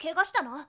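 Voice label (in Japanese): "kegasitano", Japanese boy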